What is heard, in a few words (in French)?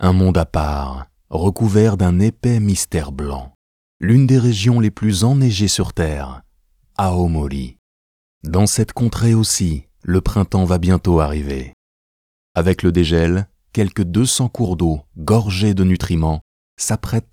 DOCUMENTARY FR; 仏語